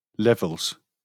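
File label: "levels", British English; pronunciations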